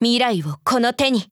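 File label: Upgrade, Japanese; Robella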